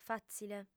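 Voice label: "fàtzile", female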